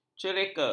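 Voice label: "cereka", Malay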